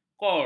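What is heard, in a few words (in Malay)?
"kor", Malay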